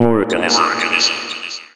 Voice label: Organism, Robotic Vox; 90s Sample CDs; Club Techno